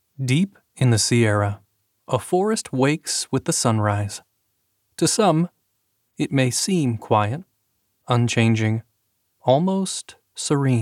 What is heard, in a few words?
Audiobook NF Documentary